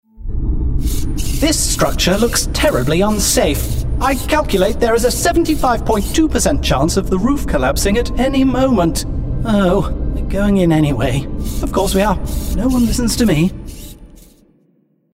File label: Gaming Android RP